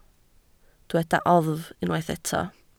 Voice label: "oddf", Welsh